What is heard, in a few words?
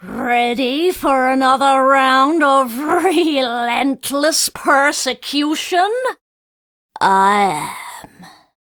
Remind Taunt; Warframe; Corpus Lich; Persona D